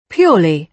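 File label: "purely", British English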